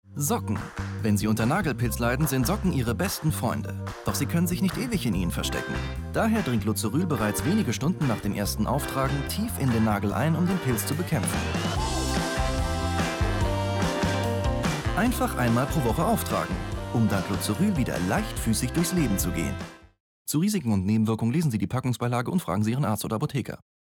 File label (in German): Werbung TV; Loceryl